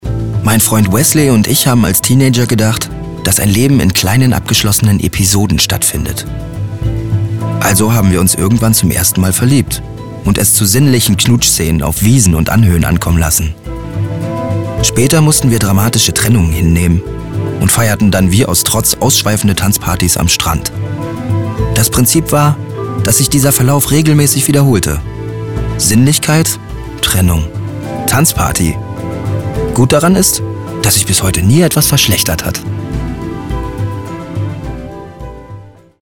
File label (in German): erzaehlung